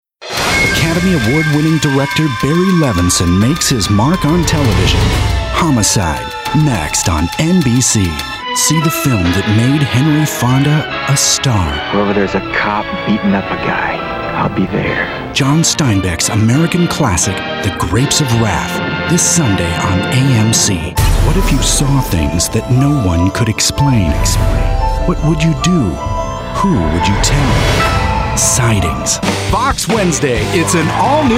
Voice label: Male, promos